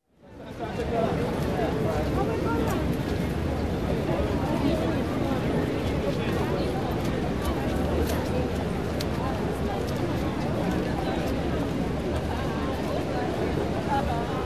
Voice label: Crowd in an open area talking